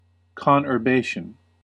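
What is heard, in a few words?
"conurbation", US English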